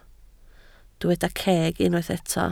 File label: "ceg", Welsh